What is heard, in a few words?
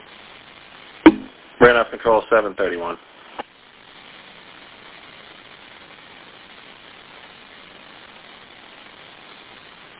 Police Radio